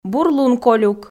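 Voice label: "бур лун колюк", Komi, female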